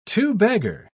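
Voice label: "two-bagger", English, British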